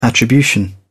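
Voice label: "attribution", British English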